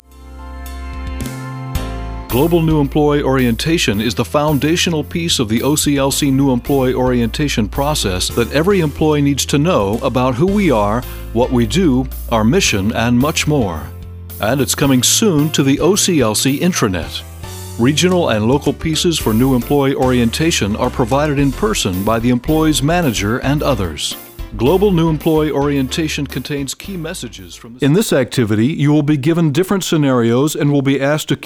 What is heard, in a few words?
narration Demo; Narration/e-Learning/Events